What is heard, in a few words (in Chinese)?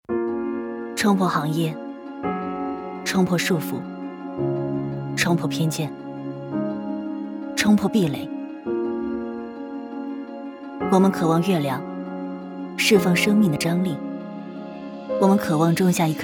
广告